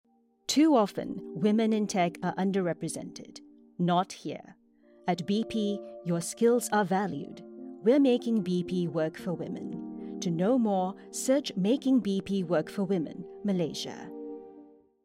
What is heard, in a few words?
english international radio imaging; singaporean english voice actors